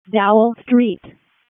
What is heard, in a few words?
WC EMS ALERT, p25